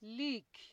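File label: "leak", Nigerian Pidgin, female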